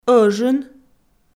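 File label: "ӧжын", Komi-Zyrian, female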